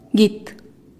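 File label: "git", squash, Teotitlán del Valle Zapotec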